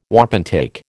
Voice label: "wapentake", American

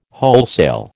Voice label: "wholesale", American

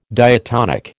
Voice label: "diatonic", American

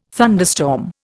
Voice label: "thunderstorm", Indian

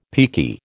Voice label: "peaky", American

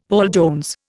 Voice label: "Paul Jones", Indian; Dictionary

